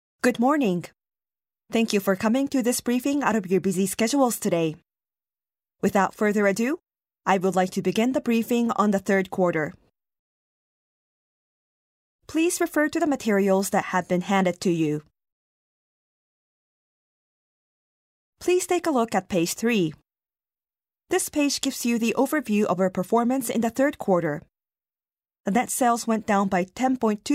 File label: english